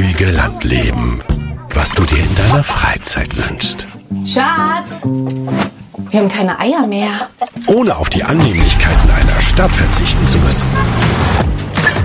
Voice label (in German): imagefilm region